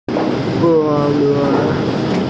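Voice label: "bo1luo2", Mandarin Chinese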